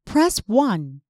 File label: Press 1, IVR